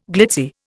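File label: "gloaming", Indian; Dictionary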